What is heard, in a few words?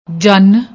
"jun", Punjabi